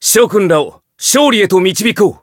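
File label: Voice variable chant, Japanese; claude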